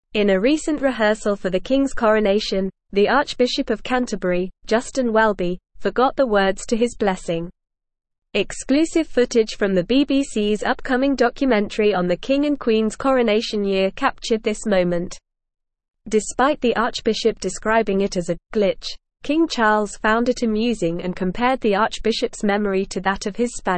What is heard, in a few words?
English Newsroom Advanced NORMAL Reading; Archbishop forgets words King laughs Coronation documentary